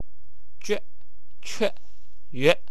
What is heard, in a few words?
"yiq", wu chinese